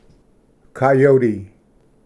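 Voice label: "coyote", English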